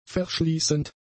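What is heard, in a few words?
"verschließend", German; adjectives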